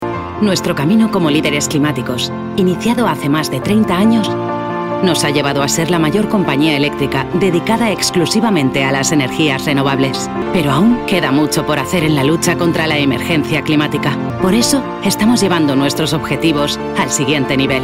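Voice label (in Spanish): corporativo España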